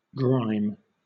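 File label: "grime", British English; pronunciations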